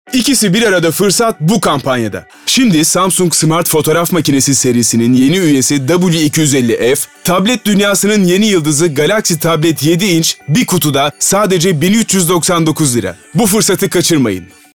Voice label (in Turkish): Promosyon